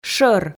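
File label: "шӧр", Komi, female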